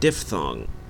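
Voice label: "diphthong", Canadian English; pronunciations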